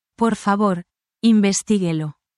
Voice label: spanish, spanish phrases